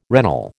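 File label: "regnal", American English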